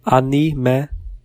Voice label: im from, hebrew